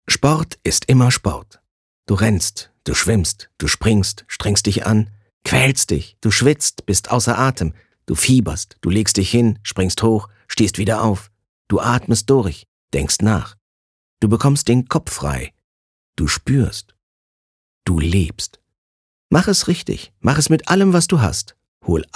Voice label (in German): Move Dynamisch